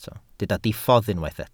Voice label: "diffodd", Welsh